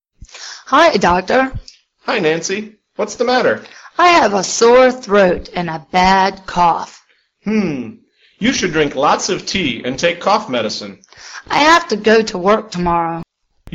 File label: dialog